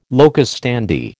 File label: "locus standi", American